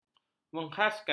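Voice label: "mengkhaskan", Malay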